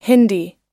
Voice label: "hindi", pronounce